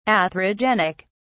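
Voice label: "atherogenic", English, uk British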